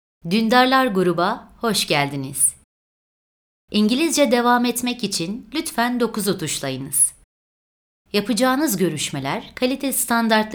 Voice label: ivr

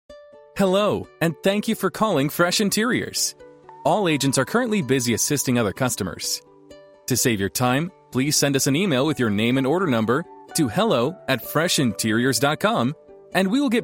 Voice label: ivr

